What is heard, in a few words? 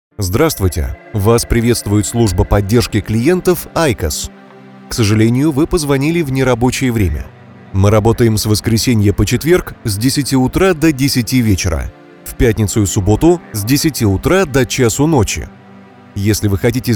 rus